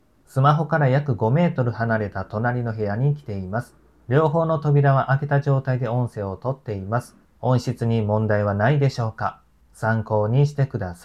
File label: maono wireless microphone